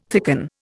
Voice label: "thicken", Indian